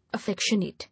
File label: "Affectionate", English